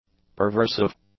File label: "perversive", english; adjectives